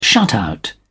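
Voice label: "shutout", British English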